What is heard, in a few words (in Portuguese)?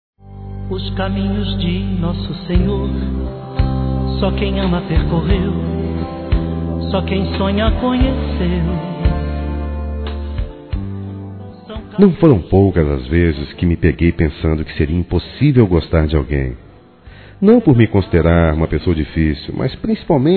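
Aniversário romântico religioso masculino; Aniversários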